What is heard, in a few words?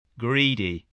"greedy", spelling